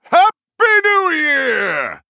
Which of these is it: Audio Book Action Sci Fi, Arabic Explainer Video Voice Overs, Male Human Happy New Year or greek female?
Male Human Happy New Year